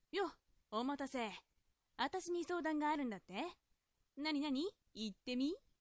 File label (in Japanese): josei